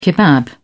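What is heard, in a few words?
"kebab", British English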